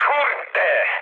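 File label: trick mood high, speech Polish; War Thunder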